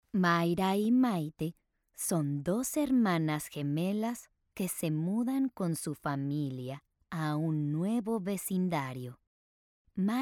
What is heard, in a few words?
Serious Topics - E-learning Narration, Spanish Voice Over